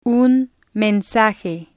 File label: "un mensaje", Spanish